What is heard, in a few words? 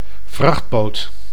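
"vrachtboot", Dutch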